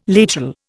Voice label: "lateral", Indian